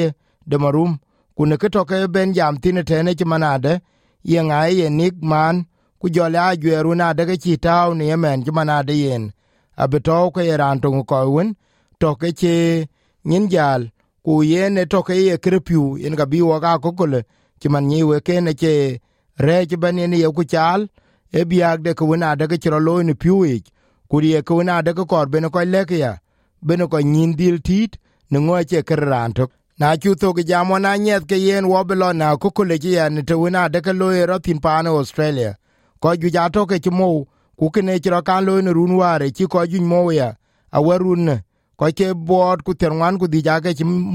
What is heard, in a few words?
dinka; Melbourne; SBS Dinka